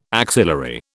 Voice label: "axillary", British

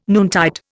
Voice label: "noontide", Indian

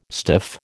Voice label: "stiff", American

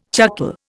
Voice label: "chuckle", Indian

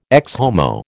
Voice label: "Ecce Homo", American

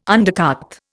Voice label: "undercart", Indian

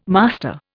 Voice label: "master", Indian

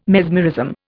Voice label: "mesmerism", Indian

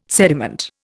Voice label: "sediment", Indian

